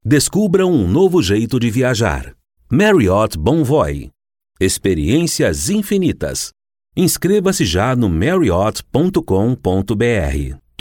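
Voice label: M, Portuguese US, male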